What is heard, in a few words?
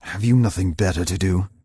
Night Elf Male Standard NPC Pissed